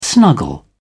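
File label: "snuggle", British English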